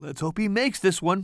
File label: commentator